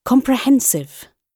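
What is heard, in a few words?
"comprehensive", British English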